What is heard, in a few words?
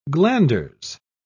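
"glanders", English, British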